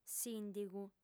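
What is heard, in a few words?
"sìndigu", female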